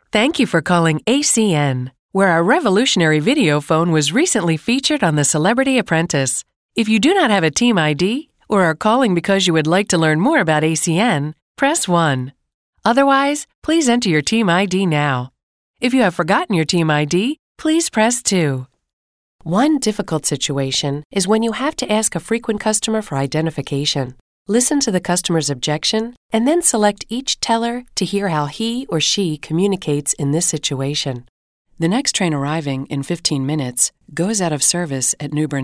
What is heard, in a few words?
narration